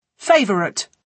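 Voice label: "favourite", British English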